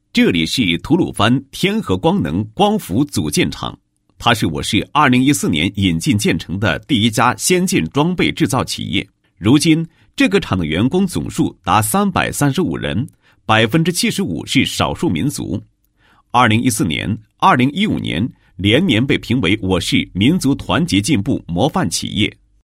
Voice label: 男 纪实专题; 市工业领域开展纪实

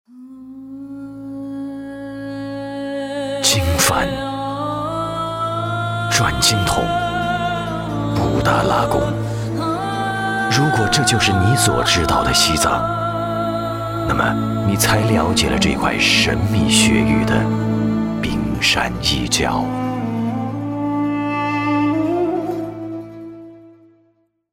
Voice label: 男声; 国语男声配音; 混厚专题

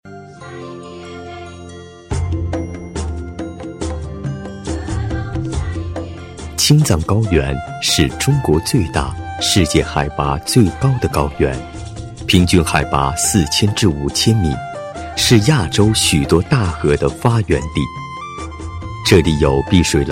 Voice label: 男 纪录, 年轻浑厚, 国语男声配音; 青藏高原

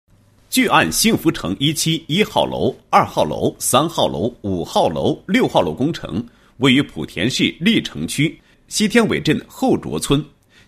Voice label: 男 工程解说; 巨岸幸福城一期

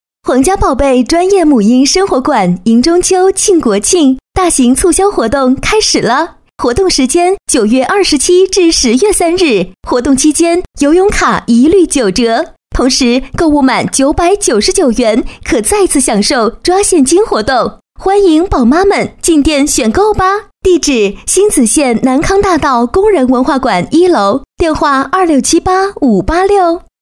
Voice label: 广告, 国语女声配音; 皇家宝贝

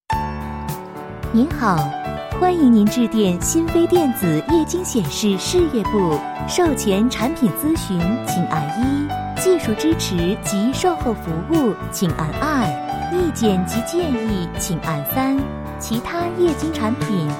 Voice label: 女 彩铃 年轻甜美; 多风格录音; 国语女声配音

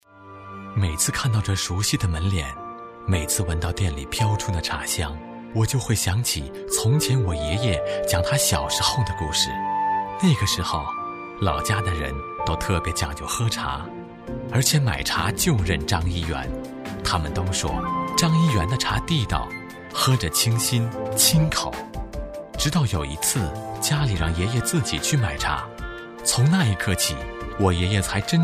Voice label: 男声 年轻磁性 仿舌尖中国 中国茶; 国语男声配音

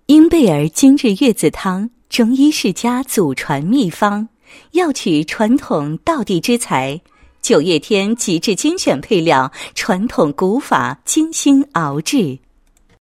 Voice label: 女 宣传广告; 配音案例; 婴贝儿精致月子汤

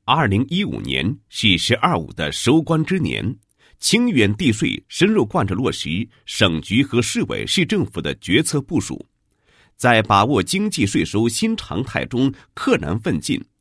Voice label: 男 纪录片; 税务局2015年度纪录片

